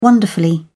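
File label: "wonderfully", British English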